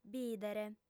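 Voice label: "bìdere", lingua sarda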